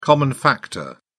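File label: "common factor", British English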